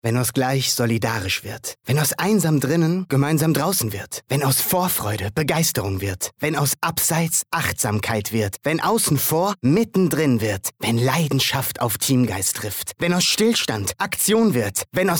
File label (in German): Werbung Funk sport vereint uns; LOFT VOICES